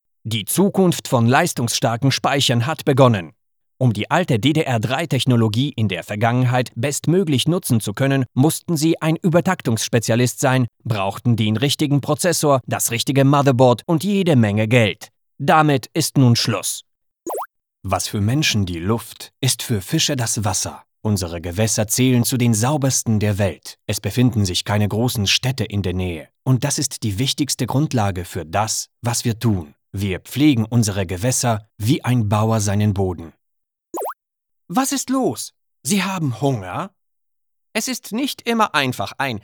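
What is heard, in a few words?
German commercial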